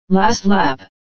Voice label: "Last Lap", voices